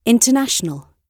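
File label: "international" spoken, British English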